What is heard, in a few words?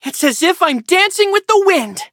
levelup en; Cookie Run